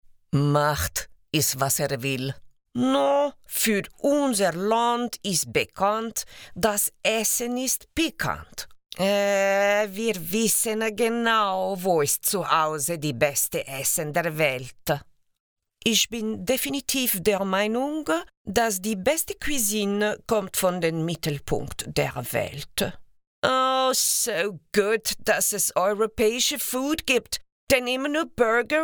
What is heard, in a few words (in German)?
Deutsch Akzente